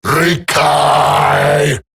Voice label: Ability Short Cast Eximus Grineer Male; Battle Barks

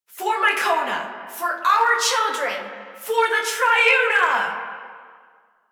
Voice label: Rally Cry; Warframe; The Index Quest; Colonists; Welphy; en

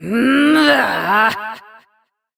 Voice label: Frustration; Evil Twin C; Warframe; Entrati Doppelganger; Battle Barks

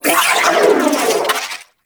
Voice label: Vocal Death; Warframe; Sentients; Battalyst

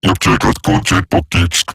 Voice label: Patrol Robot Large, Barks, Battle

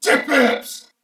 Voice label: Attack, Corpus Venus Male; Dialog; Barks; Battle; Warframe; CorpusVenusMaleA

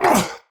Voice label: Pain; Warframe; Tau; Dax; Knight

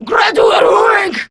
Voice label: Reload Grineer Female; Warframe; Battle Barks

